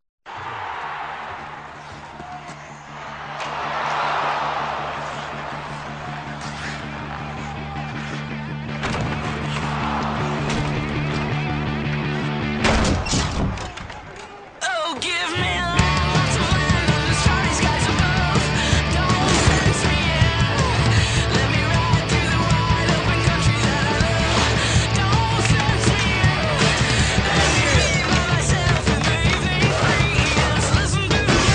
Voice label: Hockey Commercial; Nike